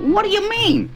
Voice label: "what do you mean", cartoon; questions